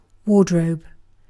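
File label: "wardrobe", British English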